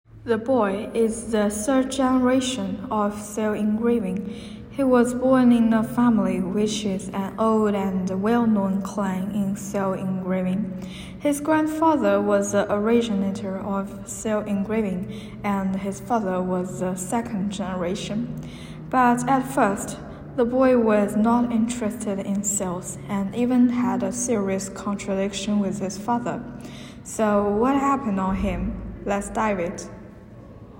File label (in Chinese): 旁白